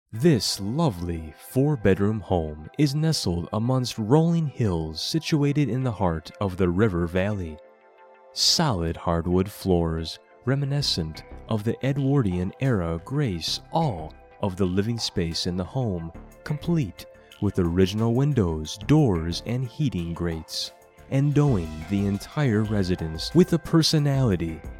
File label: e-learning